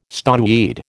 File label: "stoneweed", American